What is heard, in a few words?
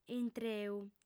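"intreu", Sardinian, female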